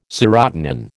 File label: "serotonin", American